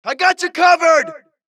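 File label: suppress, dialogue, Allies; mohaa; Mission 2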